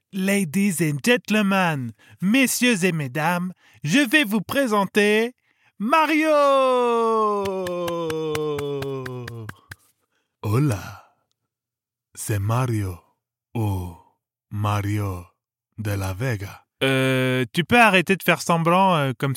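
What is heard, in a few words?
Toon, Character / Cartoon, male voice actor; Presentation Character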